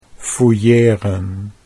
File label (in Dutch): "fouilleren", Dutch